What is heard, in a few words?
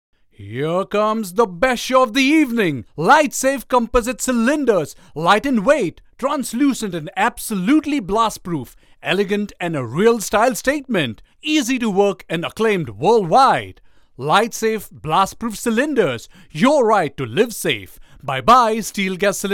TVC cylinders; Litesafe